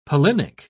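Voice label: "pollinic", British English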